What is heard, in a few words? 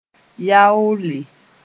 "yaoole", Moore